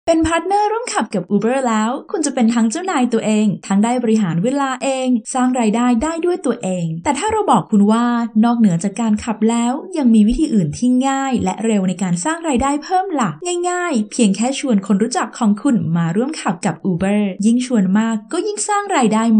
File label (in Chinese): 泰语女 外语 小语种 年轻